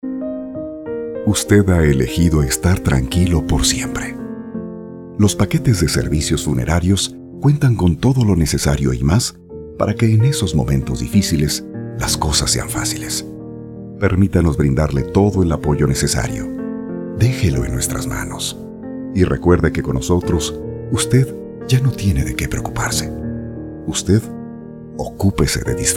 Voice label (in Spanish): locucion tono amable con musica